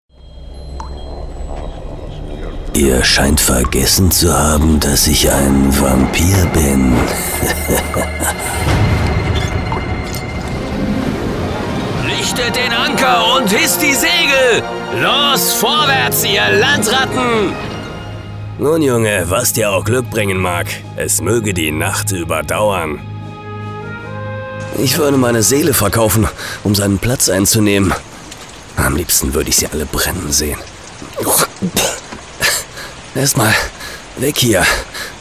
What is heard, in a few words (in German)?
hoerspiel